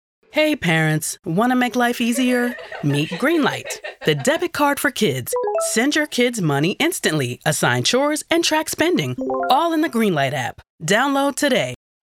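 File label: commercial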